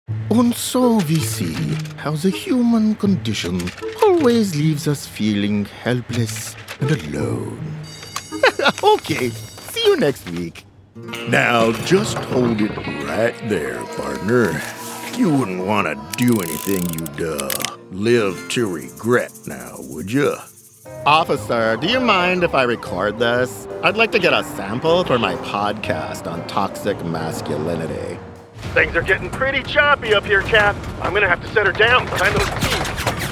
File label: animation